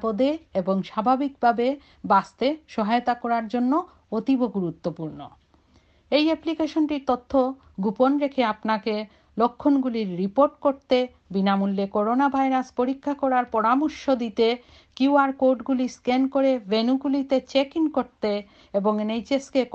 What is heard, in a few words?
nhs covid19 app bengali translation